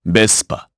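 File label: Vox Japanese; Lusikiel; Vespa; kingsraid; jp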